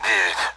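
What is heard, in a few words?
Speech Sound Russian Common AWACS Digits; 9-end; DCS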